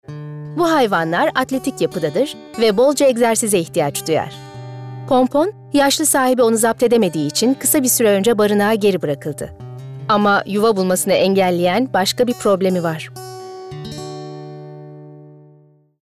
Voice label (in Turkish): Belgesel